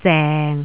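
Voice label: "zeng6", Cantonese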